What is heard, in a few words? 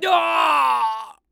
Male